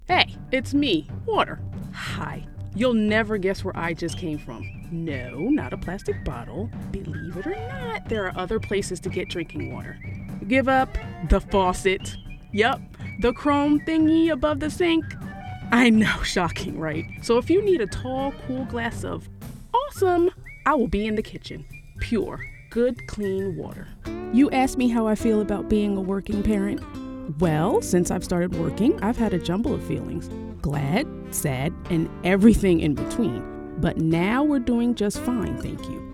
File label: voice over demo